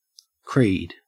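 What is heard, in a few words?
"creed", Australian English; pronunciations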